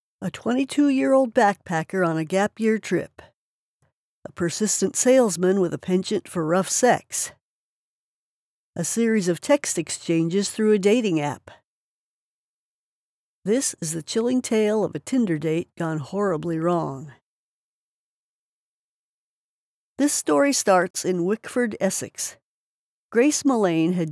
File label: English (US), Female